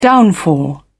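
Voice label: "downfall", British English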